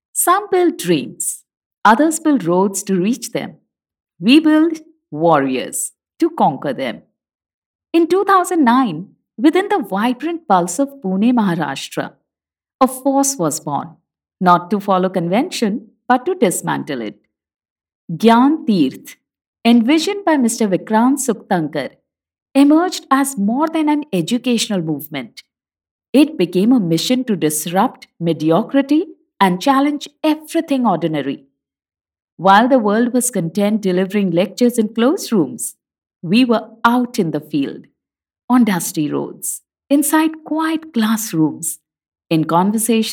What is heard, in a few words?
Narration English